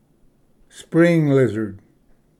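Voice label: "spring lizard", English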